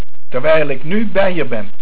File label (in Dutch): Terwijl, Dutch